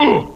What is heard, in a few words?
"uh", male